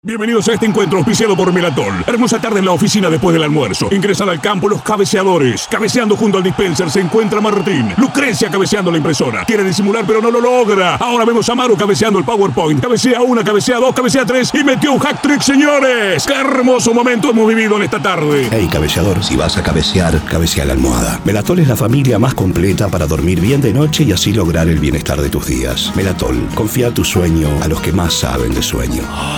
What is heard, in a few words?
acting Radio; MELATOL